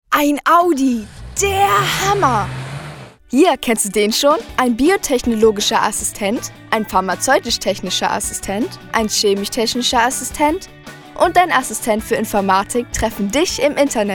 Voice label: GER F com